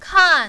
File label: "kan4", mandarin, female